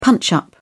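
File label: "punch-up", British English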